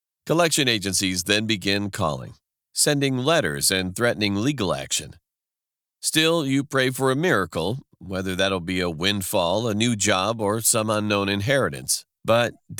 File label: Collections explainer